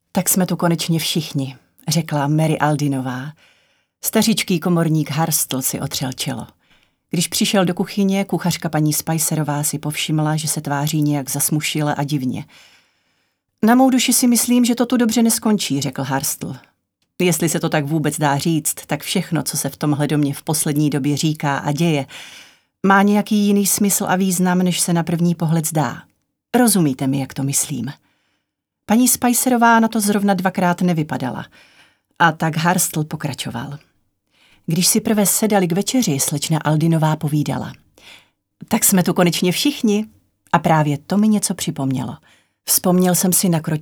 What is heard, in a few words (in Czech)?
ukazka audio kniha